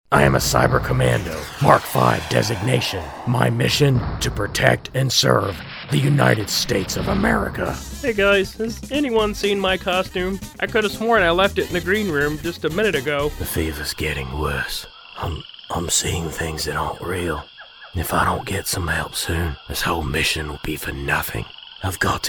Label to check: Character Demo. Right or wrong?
Right